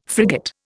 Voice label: "frigate", Indian